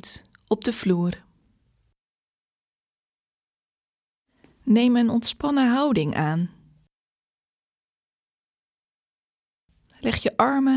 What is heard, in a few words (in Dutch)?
De bodyscan